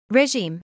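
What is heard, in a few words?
"regime", British English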